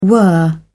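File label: "whirr", British English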